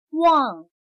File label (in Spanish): "忘", Chino